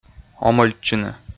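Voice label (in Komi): "омӧльтчыны", Komi, male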